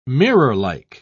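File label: "mirrorlike", British English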